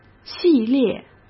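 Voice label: "系列", Mandarin Chinese; hsk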